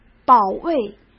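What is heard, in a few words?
"保卫" Mandarin Chinese; hsk; chinese-flashcards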